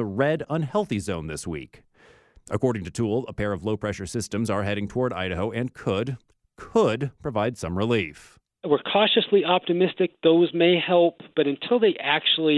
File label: smoke explainer